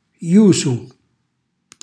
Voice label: "jusu", Calabrese; andiamo verso giù; cucinacalabrese; glossario